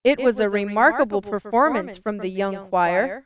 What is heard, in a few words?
Example Audio File with Acoustic Echo -64mSec; dspic dsc acoustic echo cancel